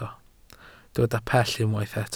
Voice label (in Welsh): "pell", Welsh